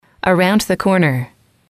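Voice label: "around the corner", English, female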